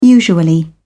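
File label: "usually", British English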